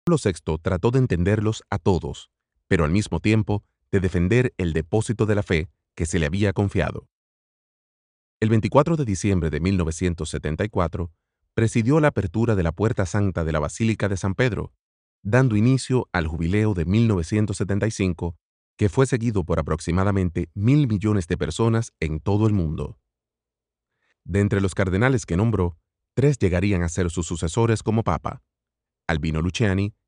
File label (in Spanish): Spanish (LA) Male